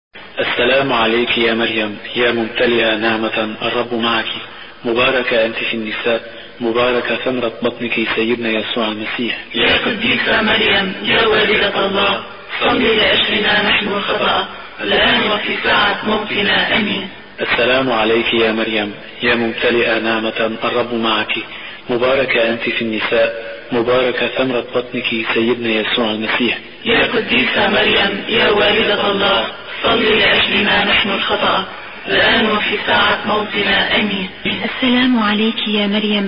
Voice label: arabic rosary